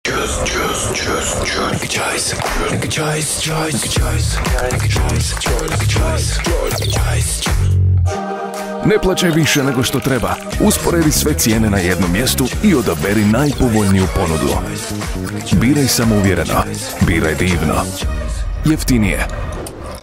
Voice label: Trendy, croatian